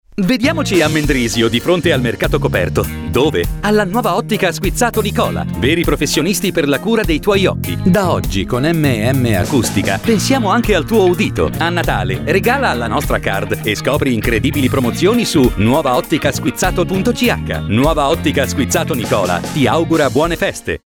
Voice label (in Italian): spot; ottica squizzato